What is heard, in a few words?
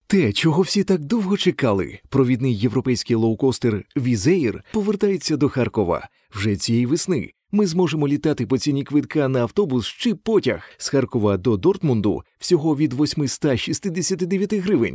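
Ukrainian, male, voice over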